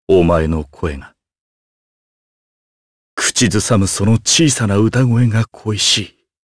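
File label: voice, Japanese; Kain; kingsraid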